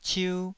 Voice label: "ciu2", Taiwanese